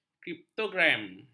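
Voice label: "kriptogram", Malay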